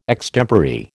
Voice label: "extempore", American